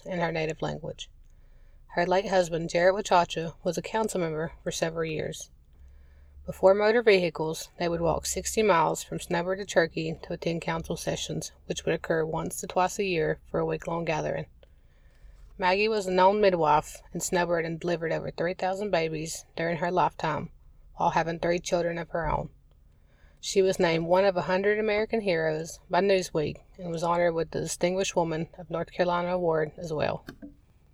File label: english